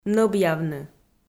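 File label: "нӧбъявны" Komi-Zyrian, female; kpv audio dict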